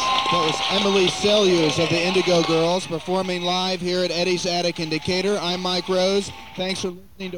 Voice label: radio announcer; songwriter showcase - eddie's attic - decatur

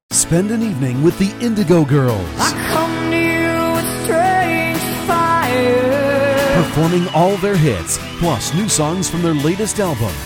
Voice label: radio advertisement

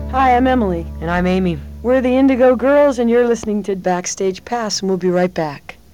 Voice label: station id

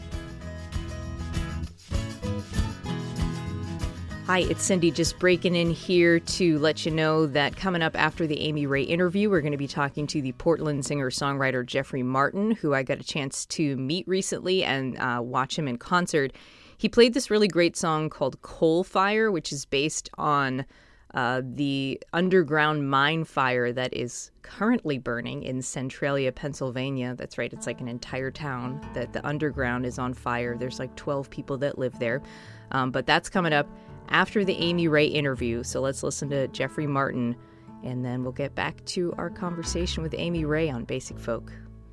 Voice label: announcer

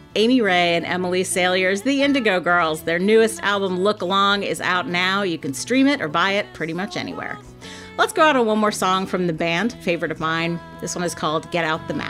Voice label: announcer; bullseye with jesse thorn - npr

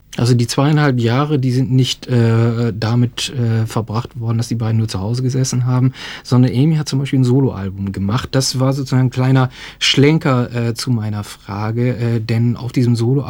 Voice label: german translation

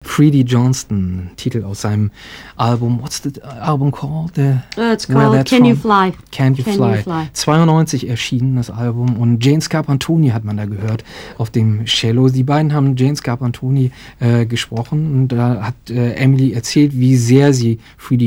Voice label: german translation

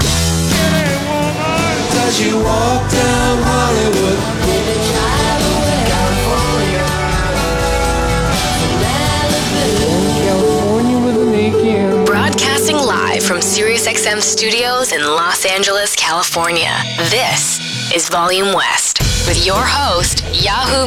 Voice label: introduction promo

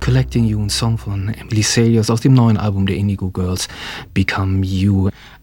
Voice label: german translation

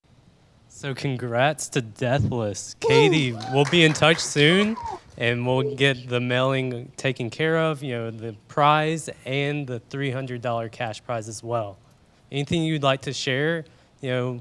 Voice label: announcer; sozo bear presents - a night at the movies - youtub

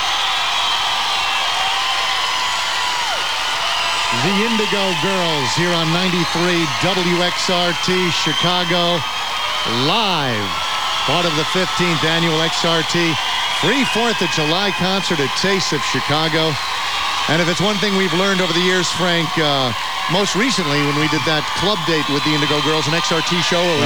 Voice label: announcers; petrillo bandshell; chicago, illinois

